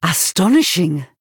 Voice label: Wow, Female Night Elf